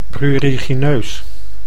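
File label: "prurigineus", Dutch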